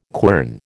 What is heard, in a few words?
"quern", American